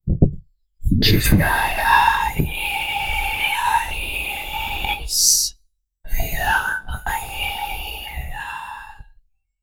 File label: creepy whispering word i; psychological